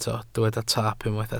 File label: "taap", Welsh